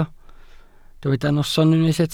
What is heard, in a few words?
"noson", Welsh